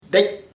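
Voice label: father padre, Ishir Chamacoco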